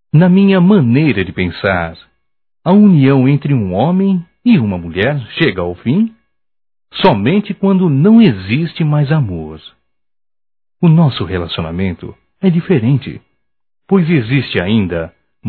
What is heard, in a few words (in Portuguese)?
Reconciliação Masculino, Só Voz; Telemensagens; Telemensagens 2017